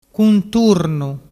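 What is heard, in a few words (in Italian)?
"cunturnu", Vernazzese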